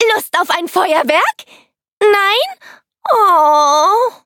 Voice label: loading, de; cookie run